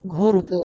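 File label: "ghordho", Proto-Indo-European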